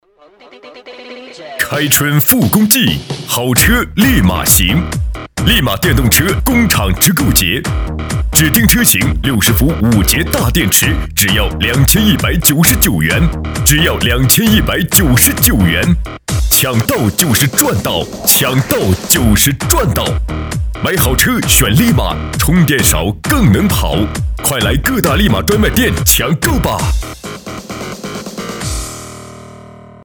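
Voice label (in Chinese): 促销电动车; 立马电动车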